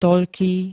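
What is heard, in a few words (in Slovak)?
"toľký", Slovak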